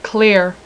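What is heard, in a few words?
"clear" female; voice; Web Clip Empire 150,000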